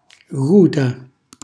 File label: "guta", Calabrese; dolce pasquale; cucina calabrese; Glossario